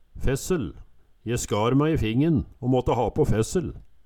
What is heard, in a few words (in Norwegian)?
fessel, Numedalsmål